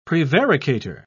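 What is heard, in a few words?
"prevaricator", British English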